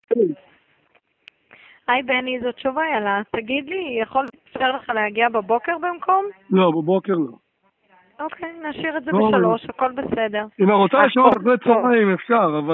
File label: call recording